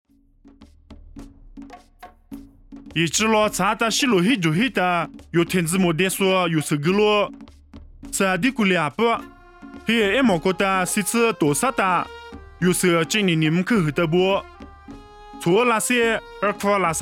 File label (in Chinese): 凉山彝语; 以色列拿撒勒; nuosu